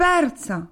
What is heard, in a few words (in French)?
"persa", Gravona